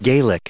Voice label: "gaelic", en us